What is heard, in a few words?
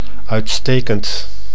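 "uitstekend", Dutch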